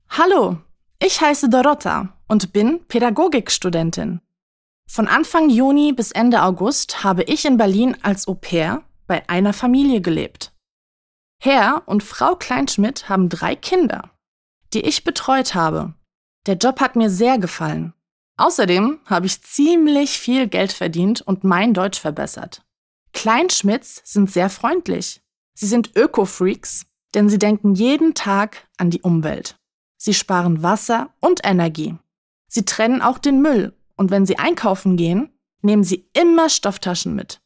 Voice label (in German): DE